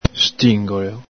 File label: "stingolo", Veneto